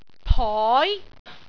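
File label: "poi2", Cantonese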